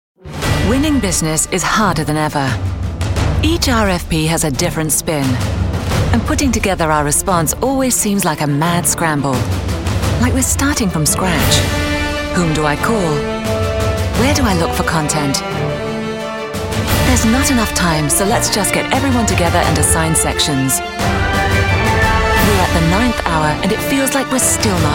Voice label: Narration, narration female